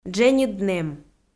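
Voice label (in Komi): "дженьыд нэм", Komi, female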